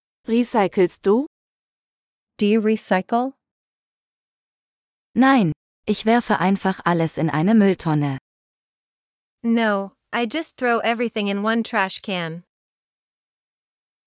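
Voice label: Recycling - Dialogue (with translations), German; de-en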